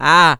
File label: vox, speech, funky vocals; USB Soundscan vol.18; AKAI